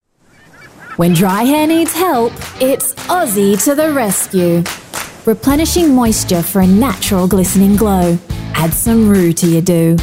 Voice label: SHAMPOO Radio Ad; AUSSIE

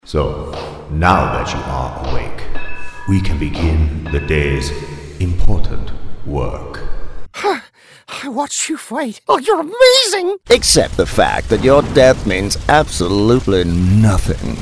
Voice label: Character

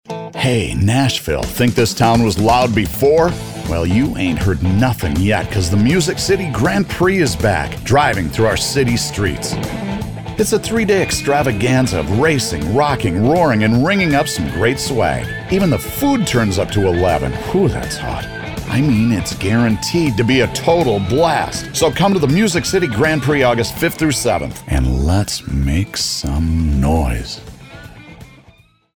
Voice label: male voice over excitement video

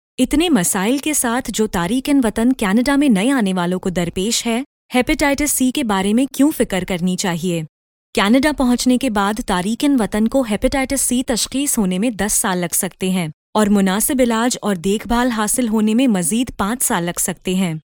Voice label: Urdu sample Hepatitis C

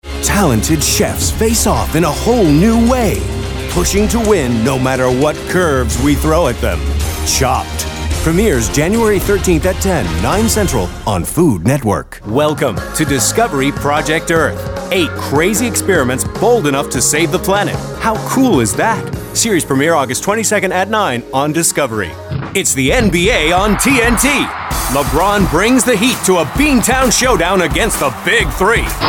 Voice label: Promo VO

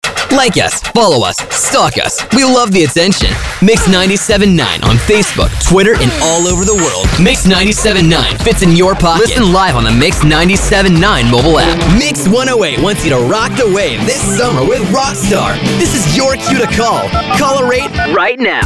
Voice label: Radio Imaging

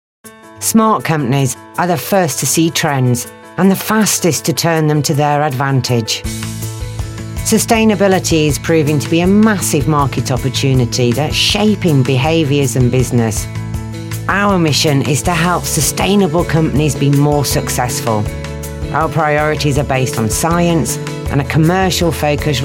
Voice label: Corporate

